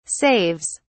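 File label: "saves", English, British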